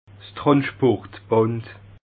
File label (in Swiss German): "transportband", alsace